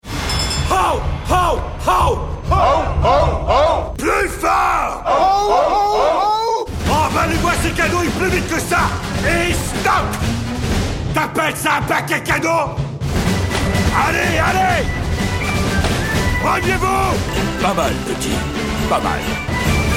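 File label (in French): PUB, voix; actimel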